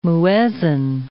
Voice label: "muezzin", English